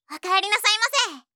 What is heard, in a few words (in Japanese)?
お帰りなさいませ, ASMR